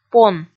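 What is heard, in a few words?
"пон", Komi, female; kpv audio dict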